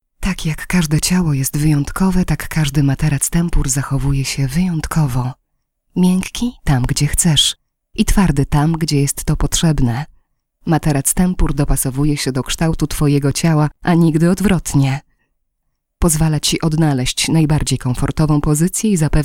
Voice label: F COMMERCIAL